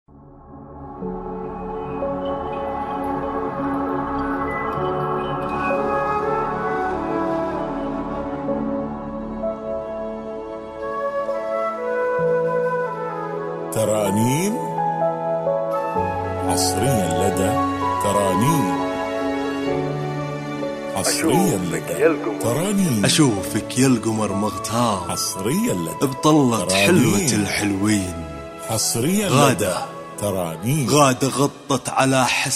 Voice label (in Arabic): شعر